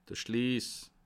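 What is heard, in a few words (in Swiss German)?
"schliess", Bas Rhin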